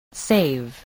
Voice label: "save", english spelling